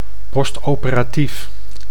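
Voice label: "postoperatief", Dutch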